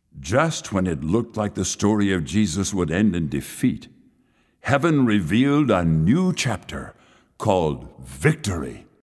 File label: Narration; worship ministry; weekly music